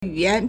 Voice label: "yu3yan2", Chinese